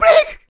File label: break Cmon Loud; HeavenStudio; dj School